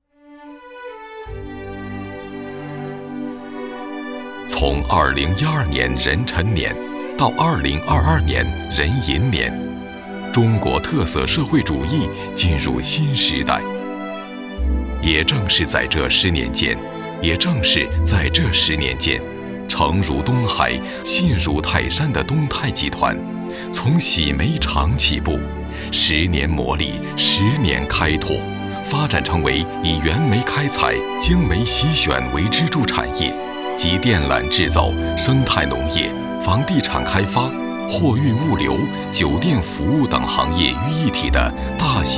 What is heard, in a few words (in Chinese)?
男 纪录片 产业类 浑厚沉稳 大气讲述